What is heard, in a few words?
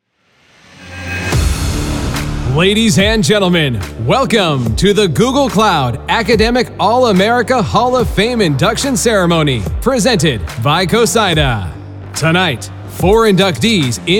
classic announcer